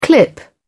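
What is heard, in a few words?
"clip", British English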